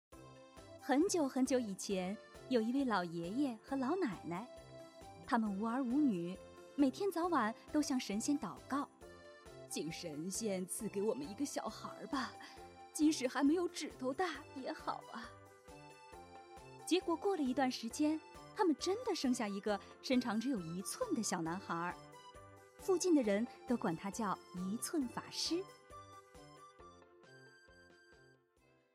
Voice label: story

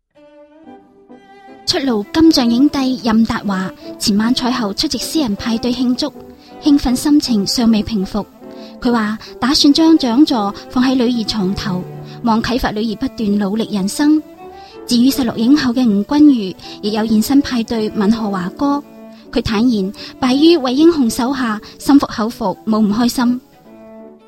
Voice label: Cantonese

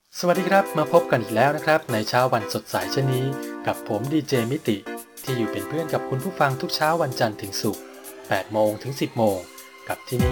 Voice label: radio

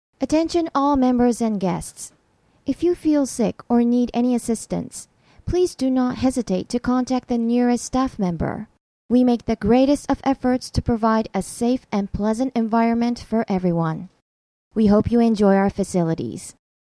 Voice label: en

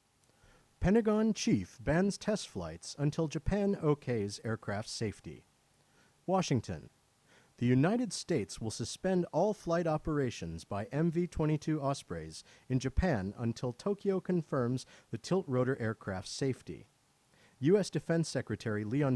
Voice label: news

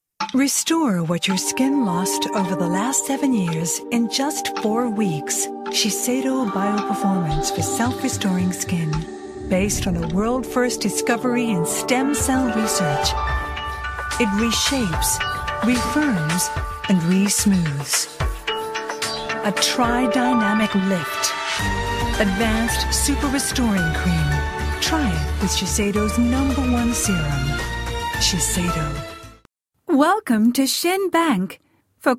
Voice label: USA

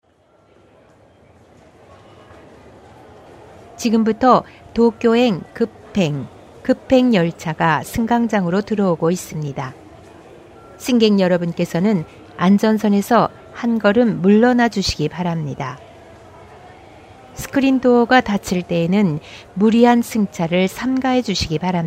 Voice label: announce

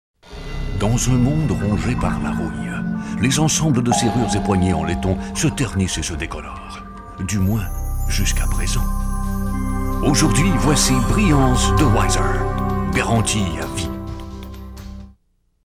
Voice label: french

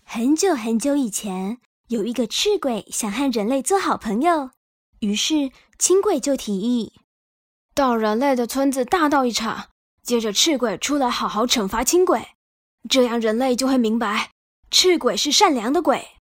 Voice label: story

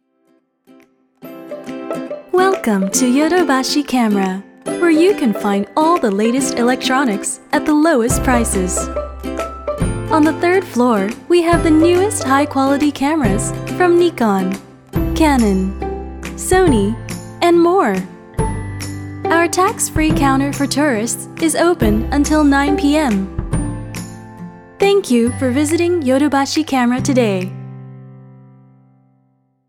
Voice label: announce

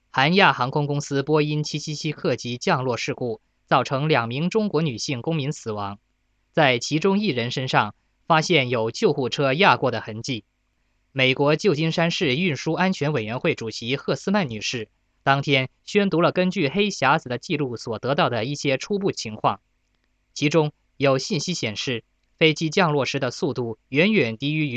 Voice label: news

